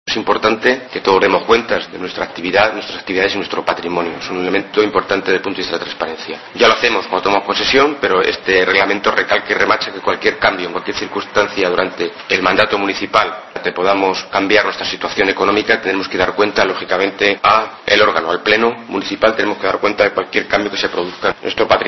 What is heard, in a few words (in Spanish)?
noticias